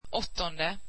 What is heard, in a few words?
swedish eighth